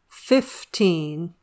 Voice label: "fifteen", English